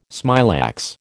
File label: "smilax", American